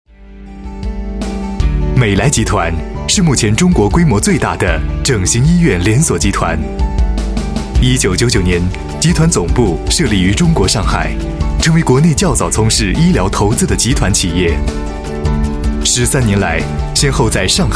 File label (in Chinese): 宣传片 企业 时尚; 美莱集团